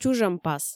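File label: "чужӧмпас" Komi, female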